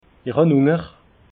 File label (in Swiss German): "hunger", alsace